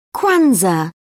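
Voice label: "kwanzaa", British English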